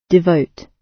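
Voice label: "devote", British English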